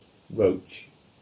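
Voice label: "Roche" English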